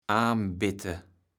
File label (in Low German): "aanbitte", platt